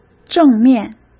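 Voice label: "正面", Mandarin Chinese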